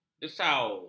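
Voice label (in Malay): "desau", Malay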